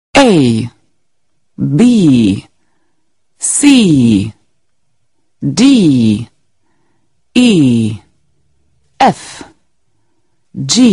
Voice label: english alphabet british woman